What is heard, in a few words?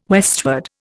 "westward", Indian